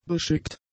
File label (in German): "beschickt", German; adjectives